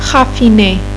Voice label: "sophisticated" "raffine", French; Personality